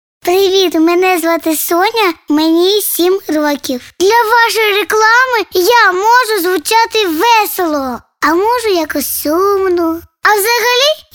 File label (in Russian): devochka 7 let Ua